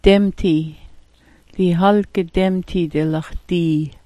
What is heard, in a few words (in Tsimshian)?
"demtii", Sm'algyax